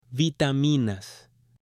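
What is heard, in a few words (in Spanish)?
"vitaminas" Spanish, female; ALIMENTOS; AppLenguaje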